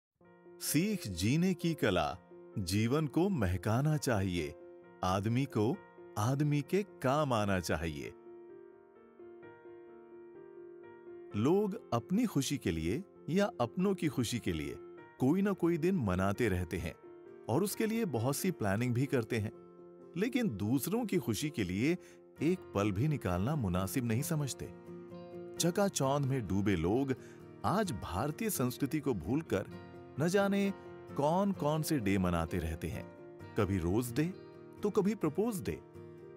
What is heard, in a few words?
Hindi Documentary; Helping Hand; Sapno Ka Mehal